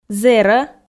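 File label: "зэрӧ", Komi, female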